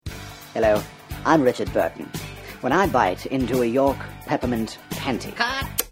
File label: radio spot